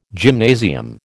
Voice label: "gymnasium", American